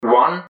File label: "one", english man, subway announcers; MetrostroiAddon